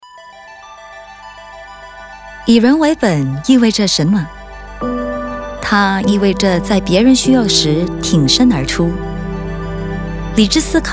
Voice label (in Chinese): Mandarin, China, female; Voice Demo